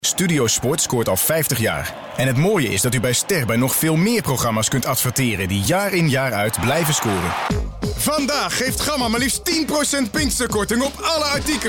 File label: Commercial